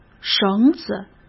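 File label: "绳子", Mandarin Chinese; chinese-flashcards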